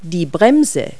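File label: "brake", English word; Multi-Lingual Talking Picture Dictionary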